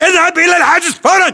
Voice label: order cover barricade, battle chatter, english

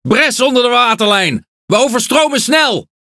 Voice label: Speech Alarms Critical Flooding, NL; World Of Warships

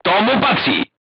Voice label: voice message direct hit, crew tanks czech

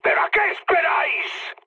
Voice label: attack position, mood high, speech; War Thunder

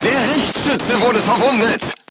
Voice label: voice message gunlayer wounded, crew tanks german

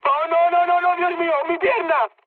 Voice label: damaged pilot, mood med, speech; War Thunder

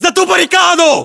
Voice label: order cover barricade, ru; localized english

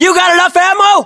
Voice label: status check, us

